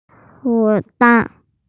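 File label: "partner", Mandarin; huo3ban4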